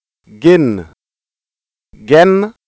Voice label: genn contrast, Wolof